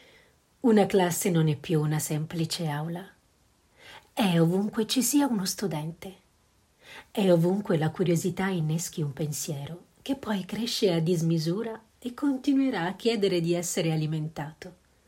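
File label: Italian, Corporate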